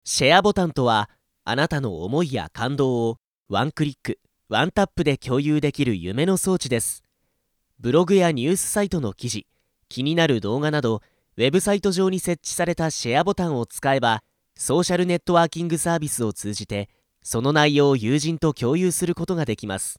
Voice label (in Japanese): ナレーション